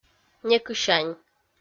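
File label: "некысянь", Komi-Zyrian, female; kpv audio dict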